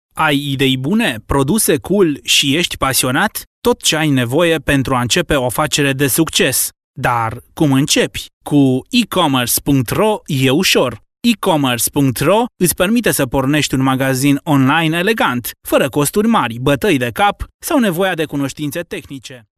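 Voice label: Romanian, male, e-learning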